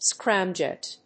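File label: "scramjet", English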